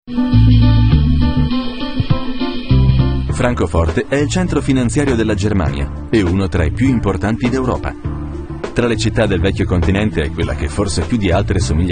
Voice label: Documentary IT